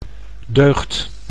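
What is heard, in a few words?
"deugd", Dutch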